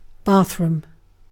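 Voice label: "bathroom", British English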